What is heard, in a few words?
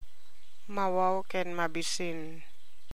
ILOCANO